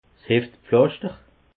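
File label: "heftpflaschter", Alsatian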